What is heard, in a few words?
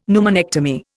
"pneumonectomy", Indian; Dictionary